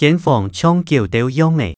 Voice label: 間房像狗竇樣仔, 客語

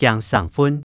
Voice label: 驚生份, 客語

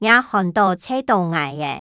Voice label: 若寒著遰著𠊎吔, 客語

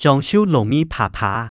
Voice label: 將就攞味划划啊, 客語

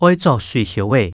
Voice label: 愛著水靴仔, 客語

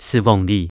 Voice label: 㓾黃梨, 客語

